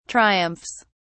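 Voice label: "triumphs", British English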